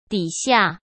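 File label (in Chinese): "底下", Mandarin; chinese-flashcards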